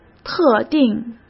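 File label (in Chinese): "特定", Mandarin Chinese; hsk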